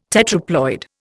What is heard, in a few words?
"tetraploid", Indian